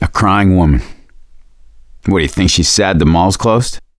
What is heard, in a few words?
heard witch, voice